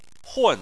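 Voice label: "hun", Chinese, fourth tone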